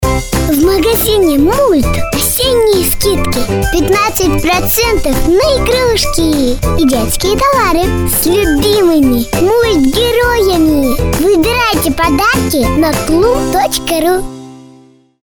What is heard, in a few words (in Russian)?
Detskiy golos